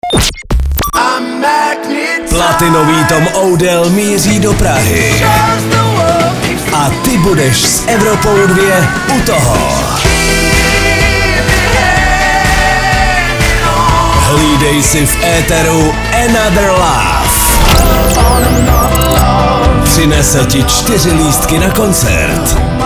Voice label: liner